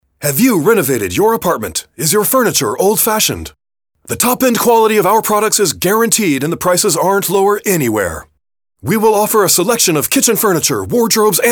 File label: ENG male Active